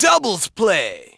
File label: "Doubles Play", announcer; select style comment double